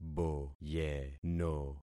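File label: "boyeno", concatenated syllables; Affixing experiment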